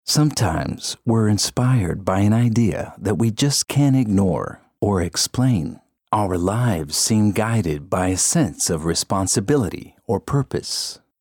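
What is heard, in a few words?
Brazilian Portuguese, male, English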